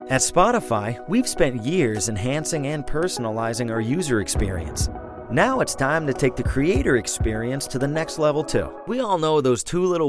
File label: Explainer